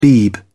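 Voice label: "beeb", English, British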